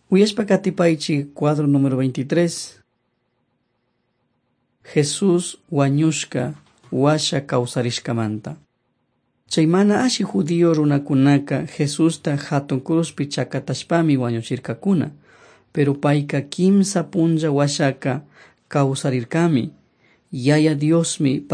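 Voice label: quichua chimborazo, drama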